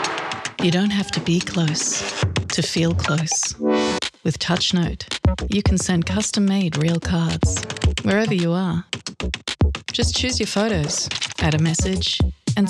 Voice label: Commercial Australian